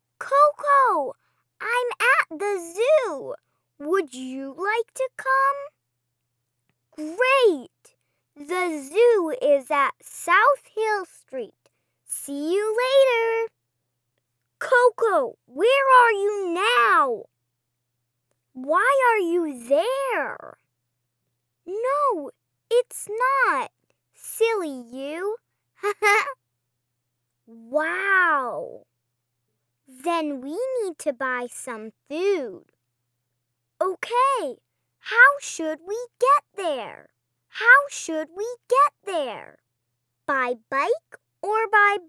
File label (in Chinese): 外籍童声女, 日常对话